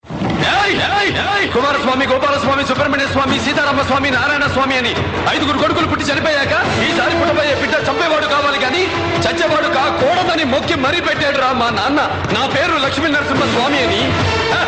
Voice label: telugu dialogue; ringtones; rock